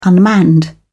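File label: "unmanned", English, British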